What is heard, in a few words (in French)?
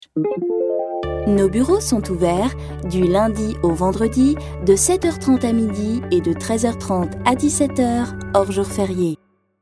repondeur